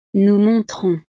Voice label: "nous montrons", frances

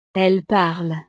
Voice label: "elles parlent", frances

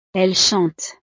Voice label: "elles chantent", frances

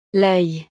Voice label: "l oeil", frances; partes del cuerpo

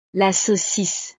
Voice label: "la saucisse", frances; desayuno

